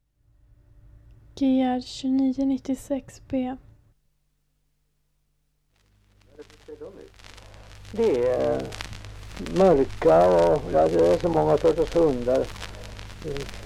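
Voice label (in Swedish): Gryt Östergötland, dialekter; Folkminnen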